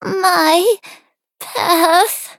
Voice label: hit, en; Cookie Run